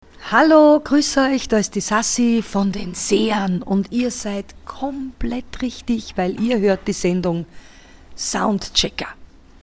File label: Station ID